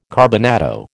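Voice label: "carbonado", American English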